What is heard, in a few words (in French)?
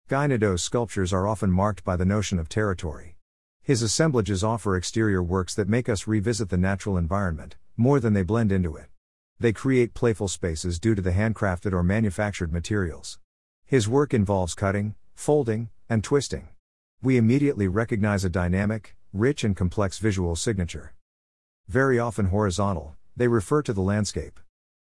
anglais